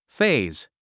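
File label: "phase", English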